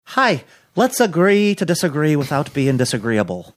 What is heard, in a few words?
hi let's agree to disagree Irish accent